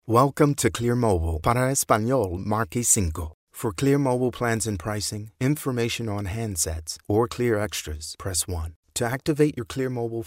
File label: IVR Telephone, voiceover